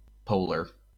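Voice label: "polar", American English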